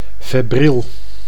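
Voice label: "febriel", Dutch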